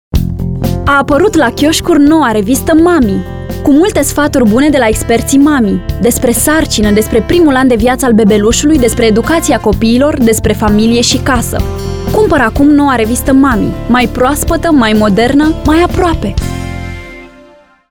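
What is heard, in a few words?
RO Commercial, Romanian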